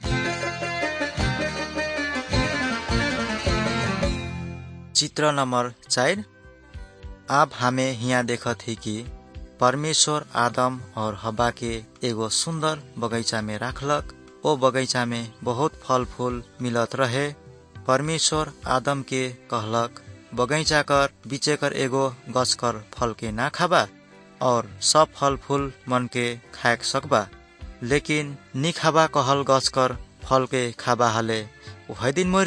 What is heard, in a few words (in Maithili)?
good news maithili, nt drama